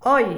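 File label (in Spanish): "hoy", Argentina, La Plata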